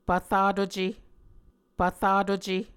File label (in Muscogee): "pvratuce", Muscogee